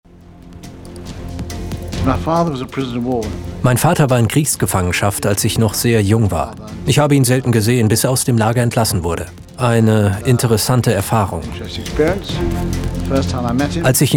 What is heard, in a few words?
voice over; royal scots